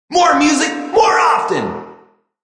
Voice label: More Music Often, Male; Radio Sound Effects

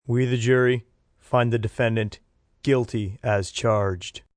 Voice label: Find Guilty, Courtroom, male, jury; Talking Sound Effects; VoiceBox; Twistedtracks

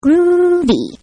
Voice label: Cartoon Voice Groovy; Cartoon Voices; Cartoons; Partners In Rhyme

